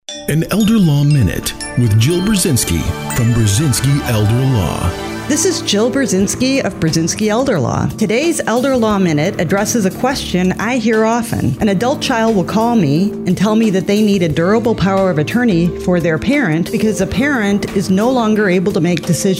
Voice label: PSA spot